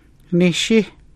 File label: níhshi to dance, Hidatsa